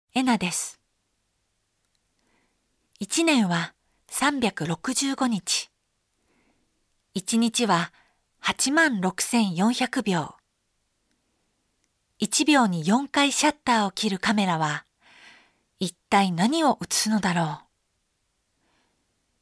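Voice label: Voice Sample Narration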